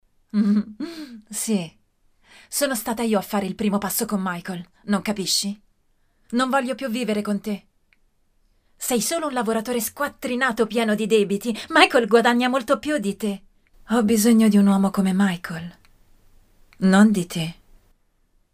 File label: locutora italia italian dubbing